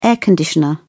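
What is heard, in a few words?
"air conditioner", British English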